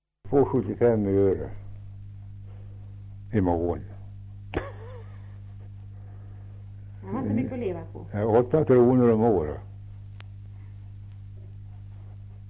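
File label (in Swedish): Kopparberg Västmanland, dialekter; Folkminnen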